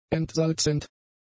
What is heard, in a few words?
"entsalzend", German; adjectives